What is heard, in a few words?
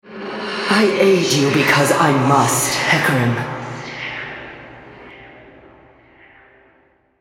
altar capture, female, en US; Hecarim